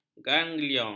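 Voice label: "ganglion", Malay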